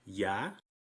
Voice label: "lla", Espagnol